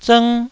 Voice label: "zng1", taiwanese